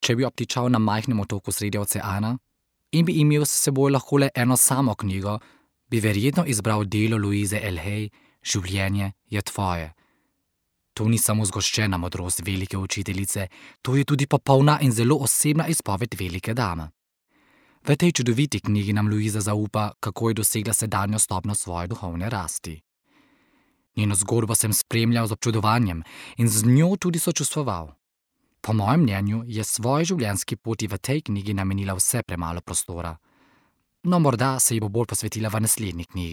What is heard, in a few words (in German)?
Slowenisch